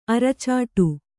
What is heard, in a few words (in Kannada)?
"ಅರಚಾಡು", Kannada